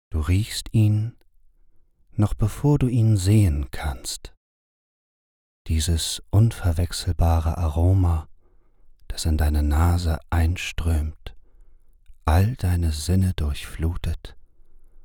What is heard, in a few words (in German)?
Entspannungsmeditation